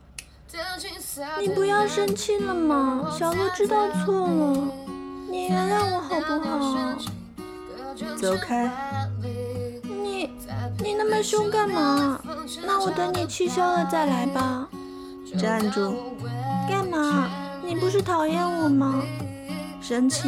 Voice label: 萝莉 御姐

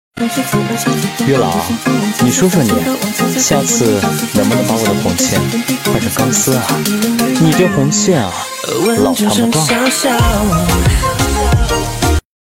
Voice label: 配音

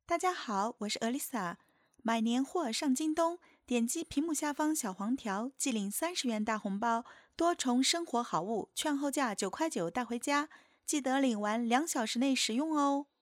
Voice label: 广告语; 京东

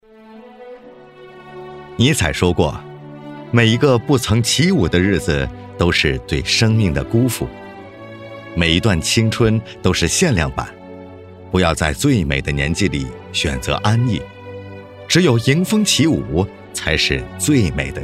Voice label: 纪录片娓娓道来; 衡水高中

